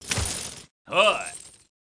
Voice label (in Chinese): 男嗨; renlei